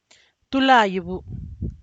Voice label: What's your name? (respectful), Santiago Matatlán Zapotec; Tú laa yebu?